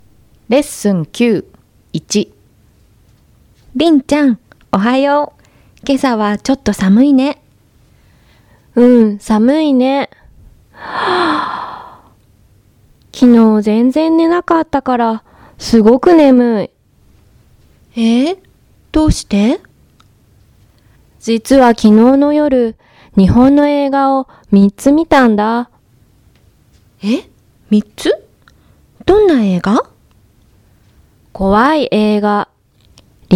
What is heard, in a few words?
Conversation; tobira